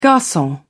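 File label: "garcon", British English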